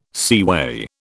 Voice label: "seaway", British

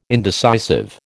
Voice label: "indecisive", American

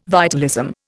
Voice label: "vitalism", Indian

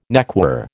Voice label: "neckwear", American

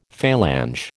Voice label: "Falange", American

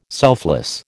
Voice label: "selfless", American

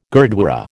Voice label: "gurdwara", American

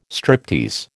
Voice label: "striptease", American

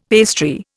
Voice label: "pastry", Indian; Dictionary

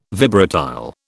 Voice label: "vibratile", British

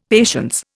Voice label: "patience", Indian; Dictionary

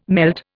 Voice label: "melt", Indian

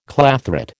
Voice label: "clathrate", American

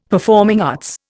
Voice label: "performing arts", Indian; Dictionary

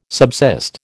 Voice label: "subsist", American

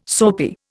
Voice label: "soapy", Indian

